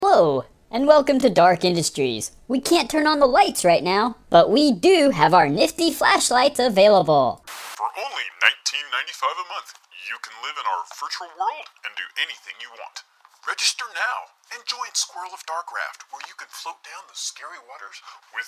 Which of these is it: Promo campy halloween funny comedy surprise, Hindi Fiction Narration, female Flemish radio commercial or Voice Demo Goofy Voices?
Voice Demo Goofy Voices